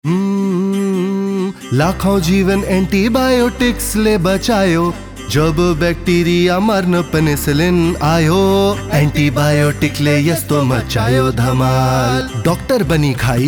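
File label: Antibiotic Jingle Nepali; Anitbiotic Jingal; jingles in 19 languages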